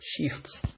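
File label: "shift", Nigerian Pidgin, male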